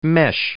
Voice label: "mesh" noun; English

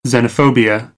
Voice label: "xenophobia", American, English; oʊ - əʊ

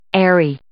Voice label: "aerie", English

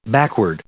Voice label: "backward", English

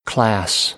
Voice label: "class", American; English; æ - ɑ

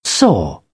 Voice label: "saw", British; AmericanBritish

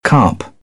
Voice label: "carp", British; English

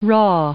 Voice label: "raw", English; adjective

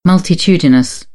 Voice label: "multitudinous", British; ə - ɪ; English

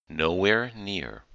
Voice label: "nowhere near" idiom; English

